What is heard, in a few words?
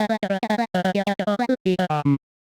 medium clear regal; voxel audio preview